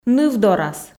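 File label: "ныв дорас", Komi, female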